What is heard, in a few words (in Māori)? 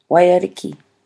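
"waiariki", Māori word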